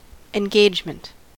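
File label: "engagement", US English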